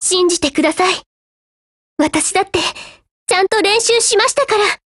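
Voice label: BLACK ACTION Japanese, Voice; GF Alarm; G36C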